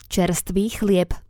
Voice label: "cerstvy chlieb", slovencina

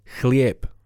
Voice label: "chlieb", slovencina

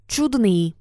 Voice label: "cudny", slovencina

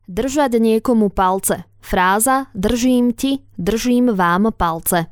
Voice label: "drzat niekomu palce", slovencina

